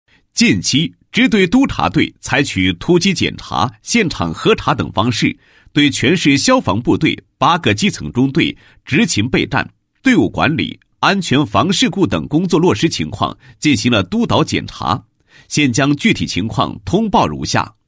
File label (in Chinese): 男 通报, 配音; 突击检查督查通报